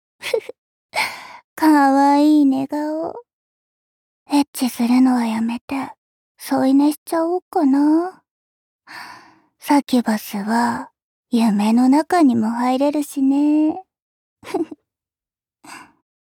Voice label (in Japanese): ふふ、可愛い寝顔…。エッチするのはやめて、添い寝しちゃおっかなぁ。, ASMR, アラーム音声